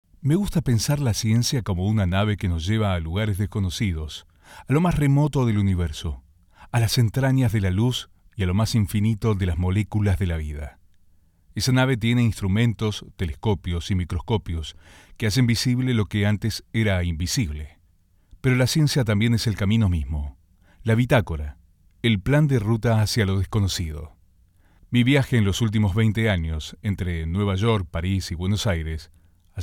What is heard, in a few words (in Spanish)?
HOMBRE